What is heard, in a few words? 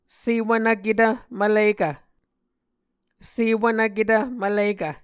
"sewvnvketv mvlikv", Muscogee